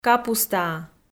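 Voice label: "капустаа", Komi, female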